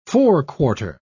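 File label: "forequarter", British English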